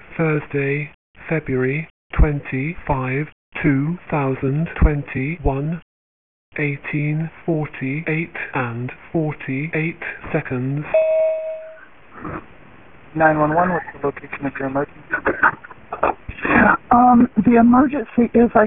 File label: 911 call; Milburnie Rd; Raleigh Police Investigate Shooting on Milburnie Road